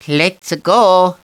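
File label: "lets-a-go", male; DS; ML BOWSERS INSIDE; Luigi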